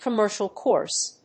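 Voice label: "commercial course", English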